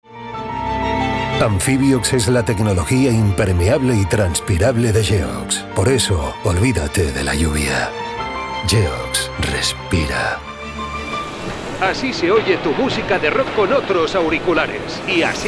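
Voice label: comercial, Spanish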